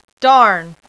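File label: "Darn", female; VOICE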